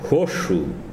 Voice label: "diente", tsesungun

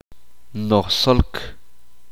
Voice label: uspanteko lleno

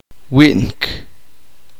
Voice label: uspanteko comer

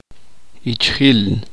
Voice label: uspanteko esposo

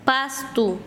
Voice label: "pasto", Quechua Chanka

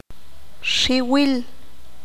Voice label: "varios", qanjobal

